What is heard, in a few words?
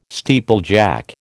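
"steeplejack", American English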